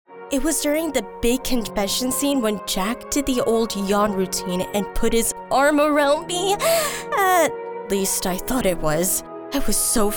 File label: Narration